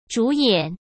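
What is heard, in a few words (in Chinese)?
"主演", Mandarin Chinese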